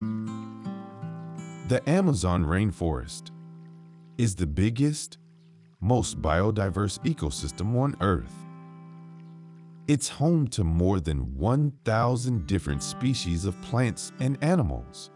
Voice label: male pro